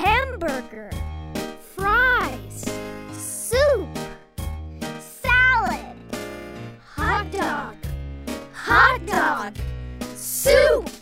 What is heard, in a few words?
the restaurant chant slow